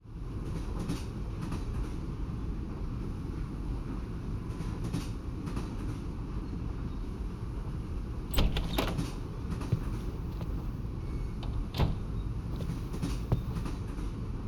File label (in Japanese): 膝枕でお耳吹き＆耳かき（右耳）, ASMR; いやでんっ!2 ～癒やしの寝台列車「クラサター号」～; 加藤英美里; 列車音